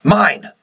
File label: voice message mine, crew ships english